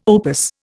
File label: "opus", Indian